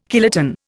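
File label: "kiloton", Indian English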